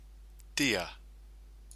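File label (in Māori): "tia", Māori word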